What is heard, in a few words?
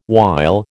"while", American